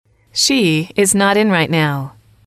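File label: "she is not in right now", English, female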